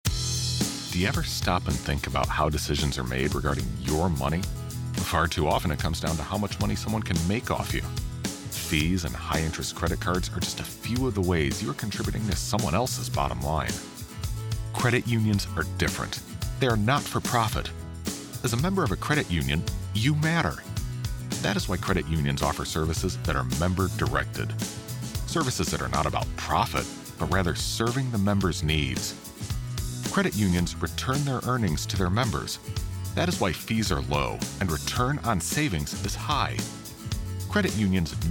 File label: Radio Ad; You Matter Nebraska